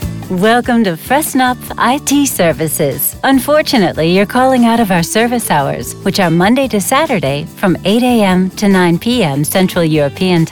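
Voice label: US telephone, englisch; telefon; sprecher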